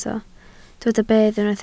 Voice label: "bedd", Welsh

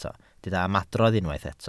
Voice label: "ymadrodd", Welsh

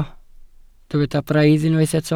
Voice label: "braidd", Welsh

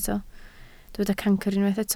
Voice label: "cancr", Welsh

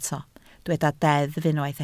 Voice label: "deddf", Welsh

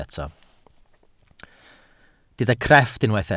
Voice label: "crefft", welsh

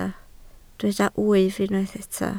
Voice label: "wyf", Welsh

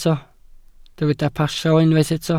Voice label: "pasio", Welsh

